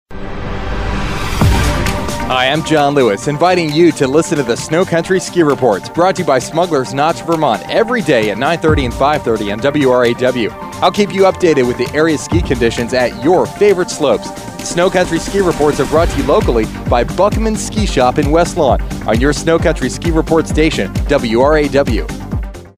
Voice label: promo mixed; airchecks; WRAW